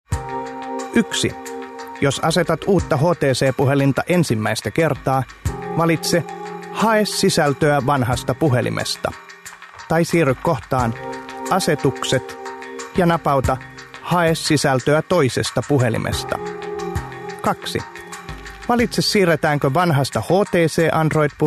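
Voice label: Finnish male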